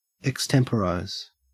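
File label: "extemporise", Australian English; pronunciations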